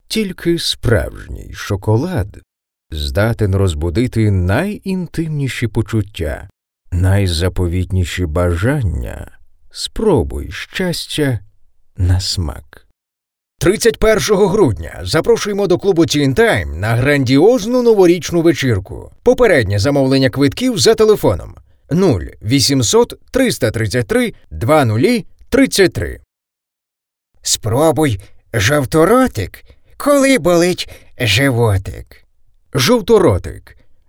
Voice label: reclama

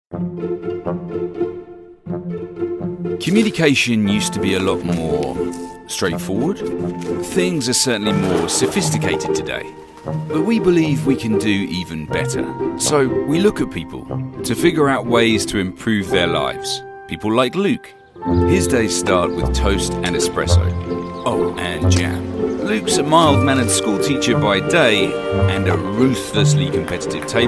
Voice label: Explainer Video British Fresh and Upbeat

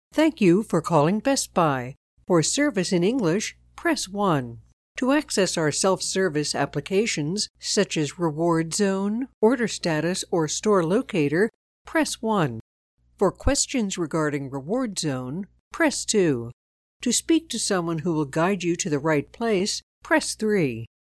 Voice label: TELEPHONY

